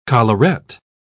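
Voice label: "collarette", British English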